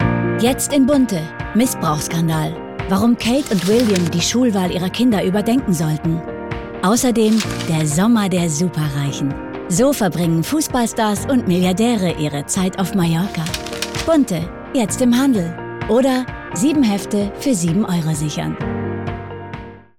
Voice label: OFF, frauen; Bunte

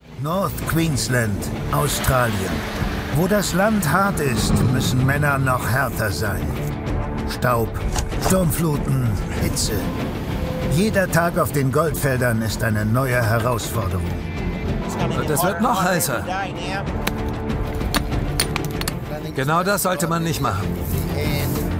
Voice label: Kommentar; maenner; Goldrausch in Australien

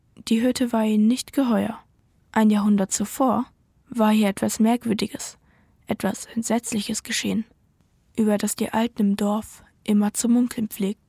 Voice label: maedchen; Die Hütte

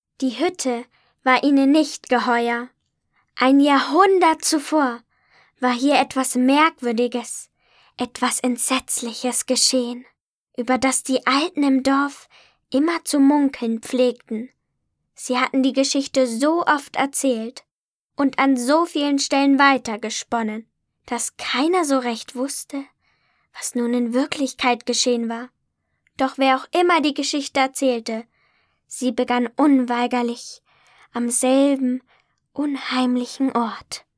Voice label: maedchen; Die Hütte

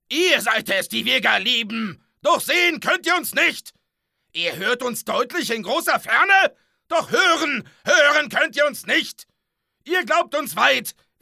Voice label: aggressiv

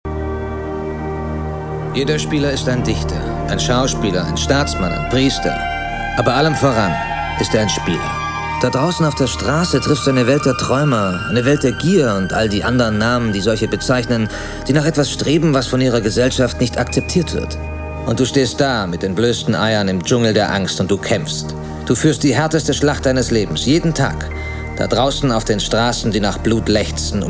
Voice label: Synchron, maenner; Pinero

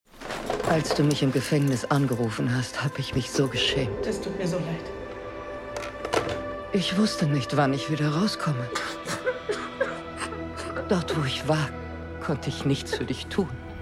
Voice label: Synchron, frauen; Lupin

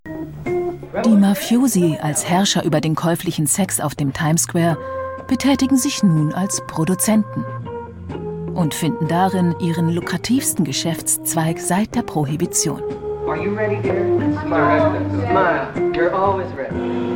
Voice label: Kommentar, frauen; arte; Deep Throat

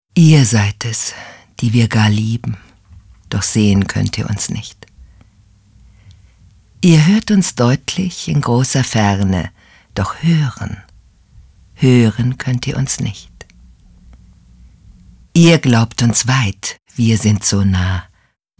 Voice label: poetisch, frauen; sprecher

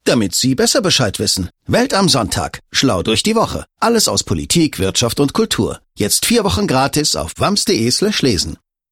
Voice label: Spots OFF, maenner; SchlauDurchDieWoche; Wirtschaft

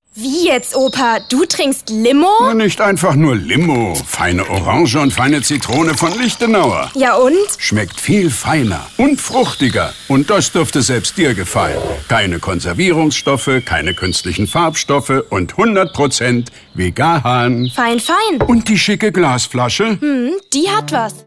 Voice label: Spots Szene, frauen; Lichtenauer Premiumlimo

